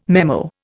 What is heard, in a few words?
"memo", Indian